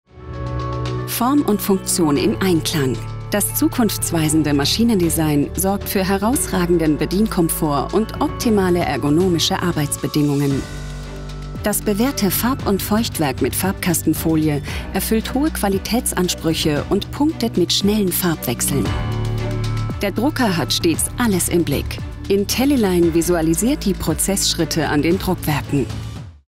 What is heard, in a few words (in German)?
Erklaervideo informativ dezent leiser; Heidelberg Speedmaster CX104